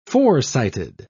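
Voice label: "foresighted", British English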